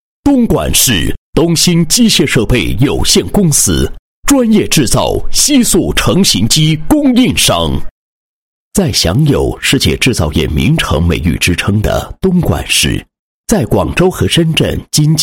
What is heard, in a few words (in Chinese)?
男 解说词 机械设备有限公司宣传片解说词; 东星机械设备有限公司宣传片解说词